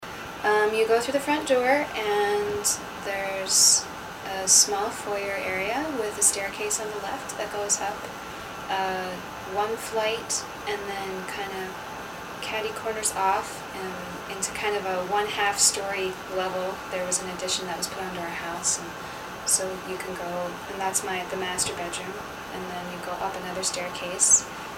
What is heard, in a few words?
Ohio New Concord conversation; usa; dialect samples